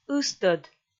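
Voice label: "ыстӧд", Komi, female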